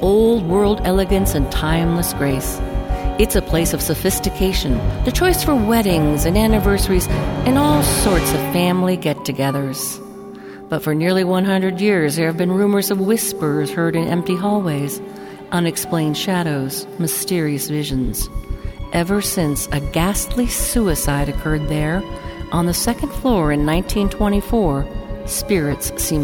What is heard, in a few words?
Voiceover Haunted Hotel; Haunted Boulder; Boulderado